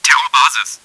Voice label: t spawn, german; csco; csgo; bot